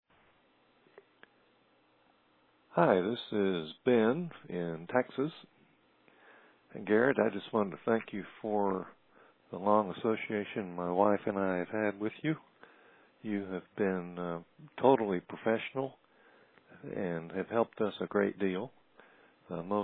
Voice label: Voice Testimonial